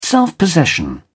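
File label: "self possession", British English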